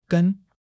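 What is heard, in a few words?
"gen1", Chinese; HSK3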